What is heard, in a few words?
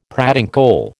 "pratincole", American